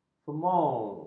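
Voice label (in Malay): "femur", Malay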